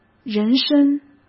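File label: "人身", Mandarin Chinese; chinese-flashcards; hsk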